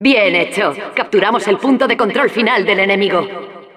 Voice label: Announcer success captured final control es; ¡Bien hecho! Capturamos el punto de control final del enemigo.; Administrator responses/es; Respuestas de la Narradora

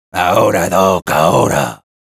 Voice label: activate charge, es; Sniper

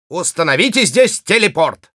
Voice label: need teleporter, ru

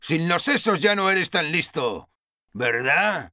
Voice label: Sniper, es; domination engineer

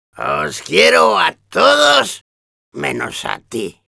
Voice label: cheers, es; Demoman

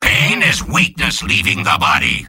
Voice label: Soldier jeers

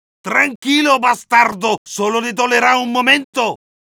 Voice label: Medic taunts, es

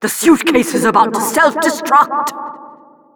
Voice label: Announcer self destruct

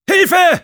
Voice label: Sniper help me, de

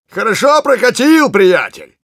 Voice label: thanks for the teleporter, ru; Engineer

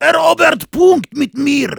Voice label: help me capture, de; Heavy

